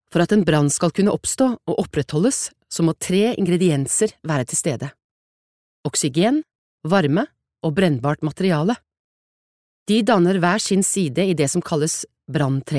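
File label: Norwegian e-learning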